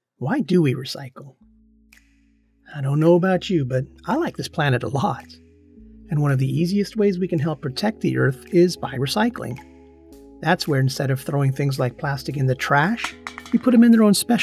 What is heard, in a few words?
Kids eLearning; male voice actor